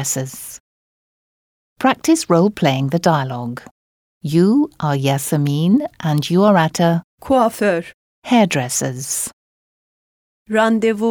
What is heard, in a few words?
Speaking, Turkish; Complete Turkish Teach Yourself